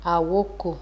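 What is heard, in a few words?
"awoko", Nigerian Pidgin, female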